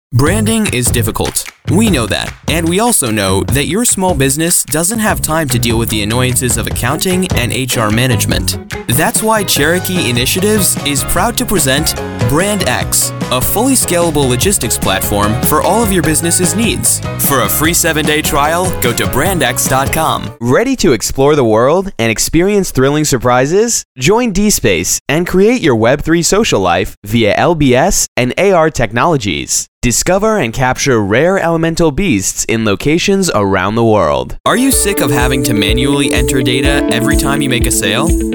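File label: English, seslendirme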